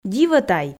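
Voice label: "дивӧ тай", Komi, female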